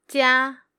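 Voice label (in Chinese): "jia", Mandarin Chinese, female; pinyin traps and pitfalls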